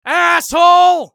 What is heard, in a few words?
battle cry; eft; usec